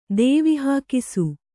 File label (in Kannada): "ದೇವಿಹಾಕಿಸು", Kannada